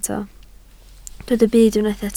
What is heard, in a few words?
"byd", Welsh